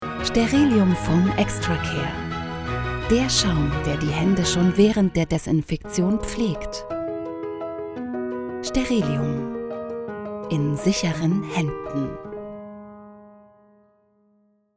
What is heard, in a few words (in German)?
Werbung, Sprecherin; Sterillium® Foam Extra Care